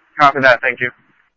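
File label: Light Rail Security Light Rail, scanner audio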